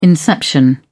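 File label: "inception", British English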